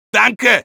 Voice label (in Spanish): Medic thanks, es